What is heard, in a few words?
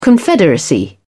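"confederacy", British English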